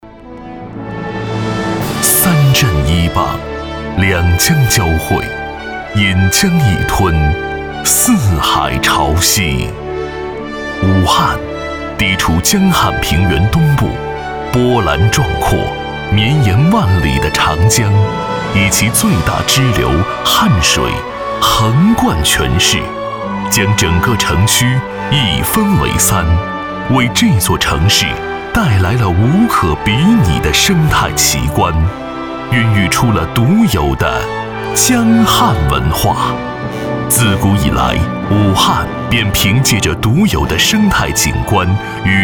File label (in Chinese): 专题 政府 环保局 稳重, 男国; 武汉环保局